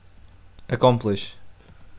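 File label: "accomplish", Portuguese, male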